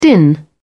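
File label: "din", British English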